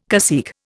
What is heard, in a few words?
"cacique", Indian